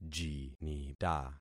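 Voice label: "jinita", concatenated syllables; Affixing experiment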